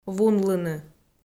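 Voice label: "вунлыны", Komi, female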